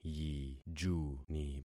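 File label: "yijuni", concatenated syllables; Affixing experiment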